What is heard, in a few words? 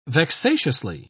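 "vexatiously", British English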